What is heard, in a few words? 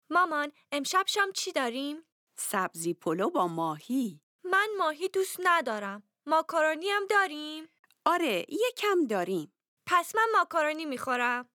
Dialogue lesson Farsi